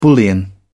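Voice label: "bullion", British English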